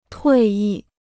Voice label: "退役", Chinese